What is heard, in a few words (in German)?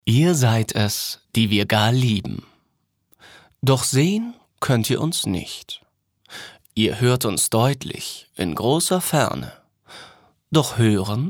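poetisch, Sprecher, Männer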